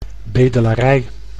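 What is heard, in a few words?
"bedelarij", Dutch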